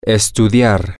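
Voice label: to study, spanish, male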